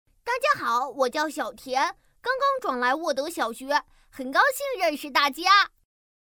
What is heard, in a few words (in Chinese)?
动画 童声 男童